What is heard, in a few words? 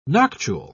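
"noctule", British English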